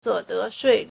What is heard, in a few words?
"suo3de2shui4", Chinese